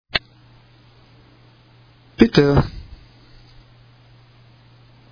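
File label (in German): "Bitte", German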